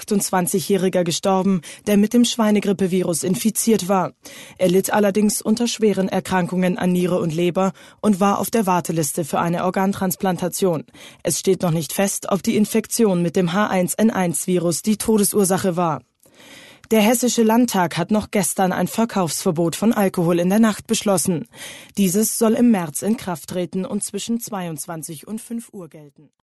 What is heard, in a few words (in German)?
Nachrichten